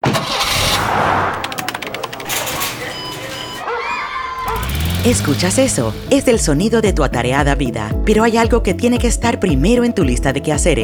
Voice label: Spanish PSA